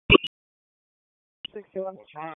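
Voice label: Raleigh Police Southwest District Dispatch; wake; p25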